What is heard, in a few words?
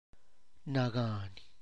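impossible, Tlacochahuaya Zapotec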